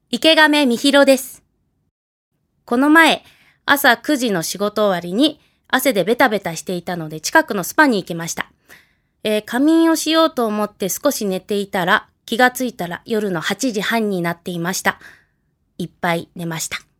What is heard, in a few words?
freetalk, female voice